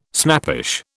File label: "snappish", British